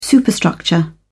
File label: "superstructure", en uk